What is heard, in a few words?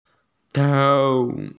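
"tou2", Mandarin Chinese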